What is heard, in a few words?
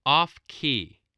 "off-key", voice, spelling